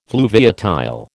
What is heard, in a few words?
"fluviatile", American